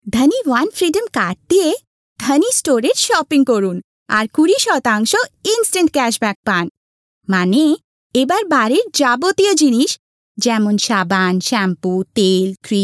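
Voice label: Bengali female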